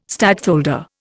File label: "stadtholder", Indian